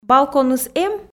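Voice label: "балконыс эм", Komi, female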